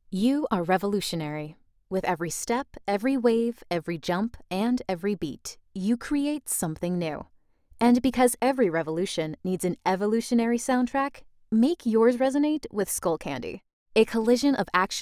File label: Narrator